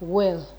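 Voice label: "well", Nigerian Pidgin, female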